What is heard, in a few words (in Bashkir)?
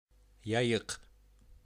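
"Яйыҡ", Bashkir; Урал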